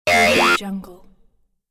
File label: robot happy yay; cinematic-designs